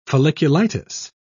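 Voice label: "folliculitis", British English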